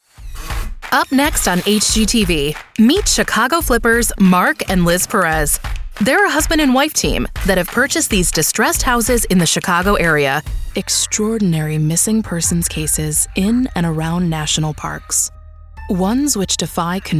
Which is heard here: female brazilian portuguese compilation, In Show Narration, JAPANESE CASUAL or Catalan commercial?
In Show Narration